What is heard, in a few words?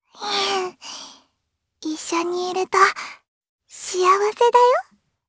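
voice dia, voices heroes, Japanese; Lavril; kingsraid; jp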